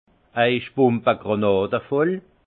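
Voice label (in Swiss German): "bumbagranadvoll", alsace Haut-Rhin; vin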